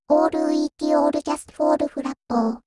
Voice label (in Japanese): ノーマル; Voidoll